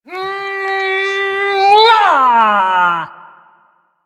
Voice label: Ability Lift, Barks; Warframe; Corpus Lich; Persona A

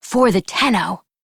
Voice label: Operator Voice; Warframe; Operator Mission; Battle Begins

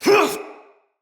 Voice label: Attack, Battle, Soldier Male; Barks; Dialog; Dendrit

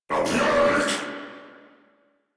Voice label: Attack, en; Warframe; Corpus Amalgam Pilot

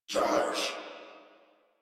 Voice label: surprised crewmen

